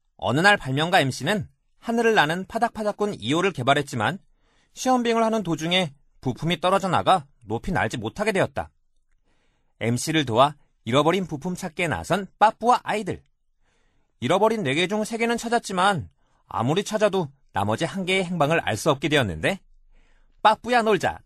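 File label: Korean male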